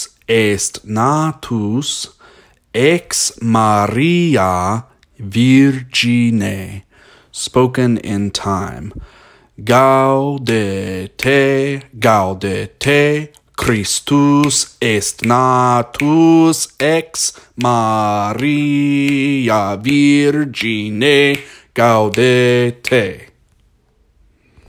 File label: latin pronunciation; gaudete